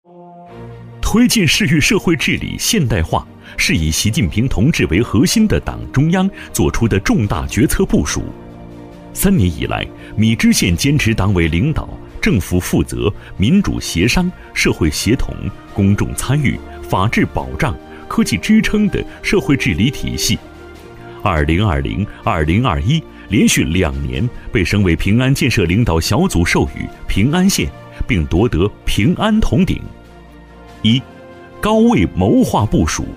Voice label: 男 政府专题 市域社会治理; 男20-厚重有力

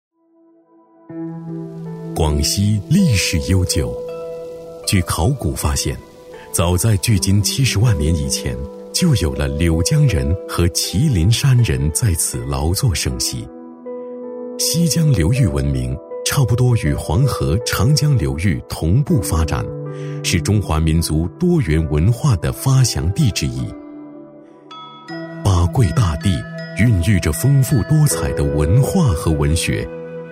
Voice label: 男 纪录片 舒缓讲述; 广西; 大气主流 舒缓抒情

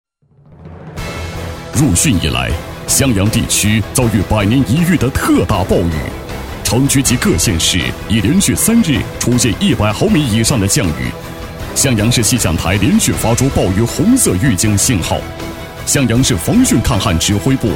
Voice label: 男, 厚重大气 大气浑厚; 襄阳市防汛抗旱应急预案

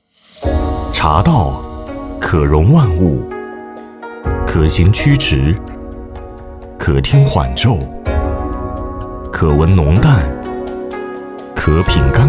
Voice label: 男 宣传片 茶道 古风历史; 大气主流 舒缓抒情

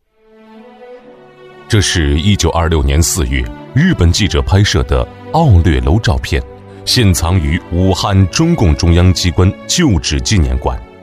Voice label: 男 宣传片 自然叙述; 奥略楼; 抑扬顿挫 自然诉说

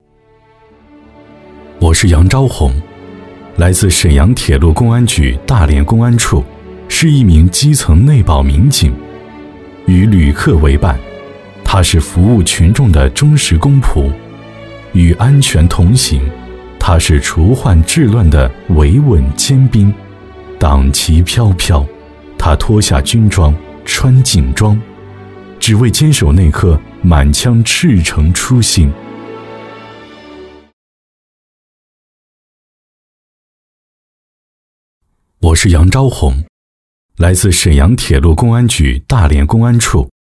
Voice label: 男 专题 人物 党员

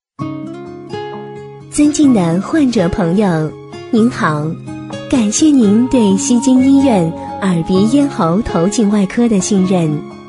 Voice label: 女 医院广播 亲切柔和自然; 甜美亲切

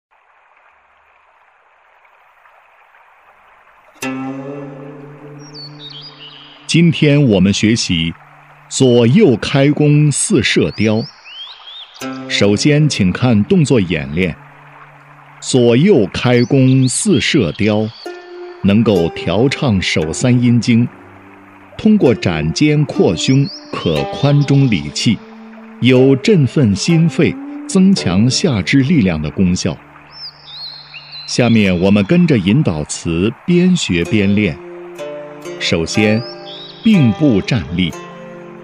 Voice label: 男 太极教学 舒缓缓慢; 柔和

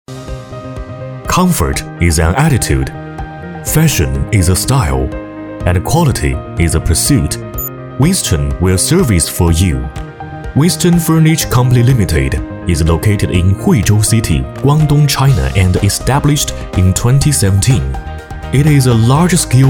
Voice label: 英文配音 大气活力, 男; 大气主流; 科技时尚

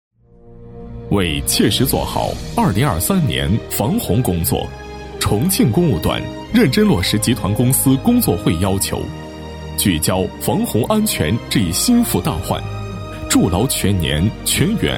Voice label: 男 工程汇报 大气厚重, 高端厚重 大气浑厚; 重庆段工程; 男1014-工程汇报【重庆段工程 大气厚重】

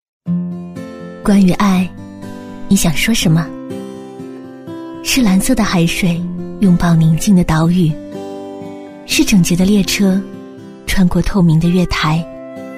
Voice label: 女 微电影 轻松自然; 婚礼; 低沉走心

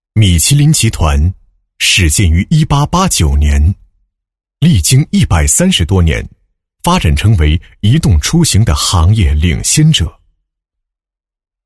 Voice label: 男, 年轻时尚, 大气主流 高端大气; 上海米其林轮胎

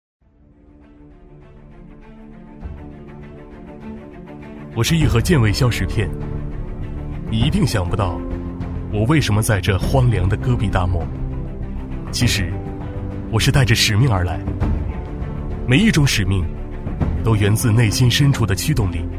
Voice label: 男, 走心宣传, 偏走心; 江中健胃消食片; 激情大气

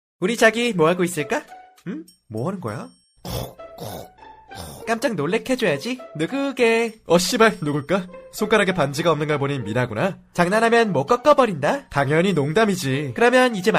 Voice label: 韩语男声

